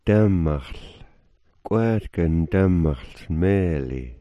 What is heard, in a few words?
"damxl", smalgyax